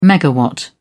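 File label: "megawatt", British English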